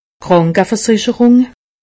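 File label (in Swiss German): "krankeversicherung", Alsatian; medecine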